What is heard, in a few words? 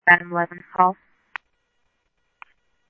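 Mesa police department, scanner audio trunk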